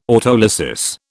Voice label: "autolysis", British